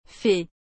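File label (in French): "fée", French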